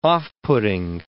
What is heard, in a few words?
"off-putting", English